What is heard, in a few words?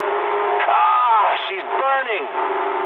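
English on fire mood low; speech; War Thunder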